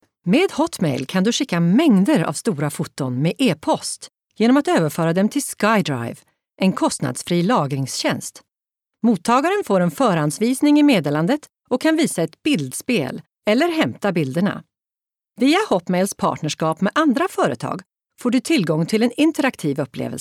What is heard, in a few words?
Swedish F Corporate